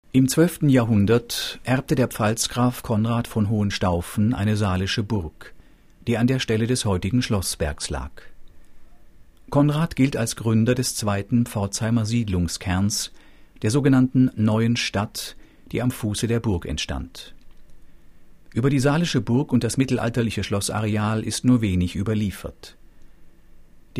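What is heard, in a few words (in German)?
hörstation museum; pforzheim 2009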